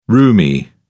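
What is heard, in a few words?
"rheumy", British English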